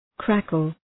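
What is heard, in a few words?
"crackle", English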